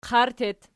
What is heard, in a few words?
"qart" super, Archi